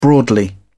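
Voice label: "broadly", British English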